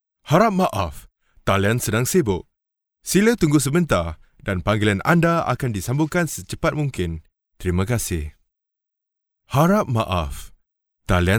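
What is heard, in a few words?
Malaysia, male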